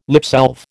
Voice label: "lipsalve", American